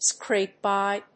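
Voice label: "scrape by", English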